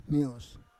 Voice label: "meos", Roon